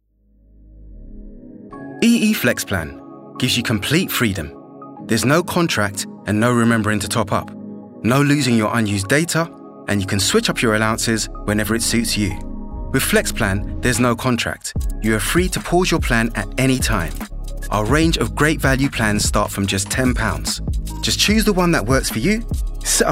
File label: male english commercial; english southern accents